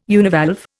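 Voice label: "univalve", Indian English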